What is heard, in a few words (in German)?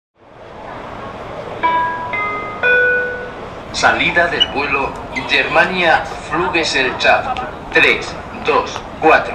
Flughafen Ansagen, nach Hamburg; Germania